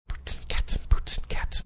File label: whispering boots and cats and boots and cats